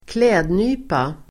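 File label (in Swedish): "klädnypa", Swedish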